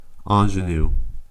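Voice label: "ingenue", US English; pronunciations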